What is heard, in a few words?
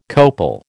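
"copal", American English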